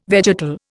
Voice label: "vegetal", Indian

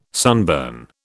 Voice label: "sunburn", British

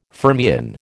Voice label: "fermion", American

